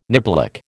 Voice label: "niblick", American

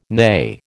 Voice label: "nay", American

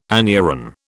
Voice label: "anuran", British

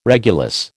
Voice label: "regulus", American

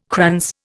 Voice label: "krans", Indian

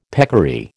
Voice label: "peccary", American

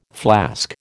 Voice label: "flask", American

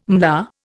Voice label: "MLA", Indian

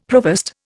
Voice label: "provost", Indian; Dictionary

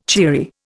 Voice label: "cheery", Indian

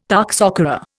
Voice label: "toxocara", Indian

